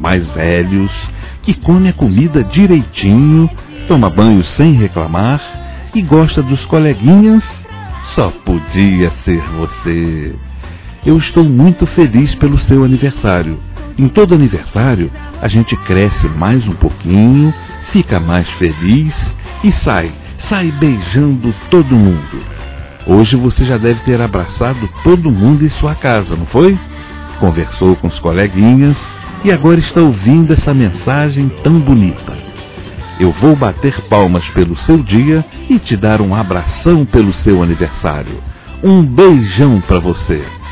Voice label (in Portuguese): Neutro Criança Masculino; Telemensagens 2017; Aniversários; Aniversário Infantil